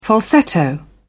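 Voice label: "falsetto", English, British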